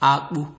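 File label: "akpu", Nigerian Pidgin, male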